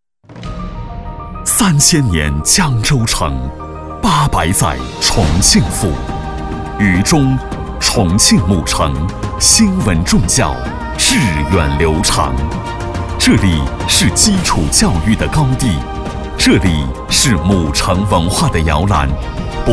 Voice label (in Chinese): 男 广告宣传片; 渝中